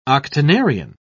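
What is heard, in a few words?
"octonarian", English, British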